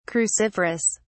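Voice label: "cruciferous", British English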